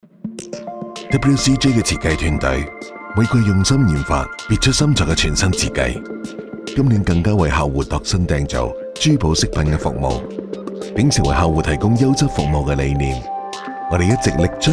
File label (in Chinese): 男粤 广告 珠宝首饰 港式男声 优雅, 粤语